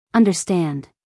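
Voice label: "understand", American English female; everyone-can-use-english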